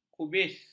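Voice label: "kubis", Malay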